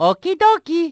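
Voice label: "OKIE-DOKIE", male; Mario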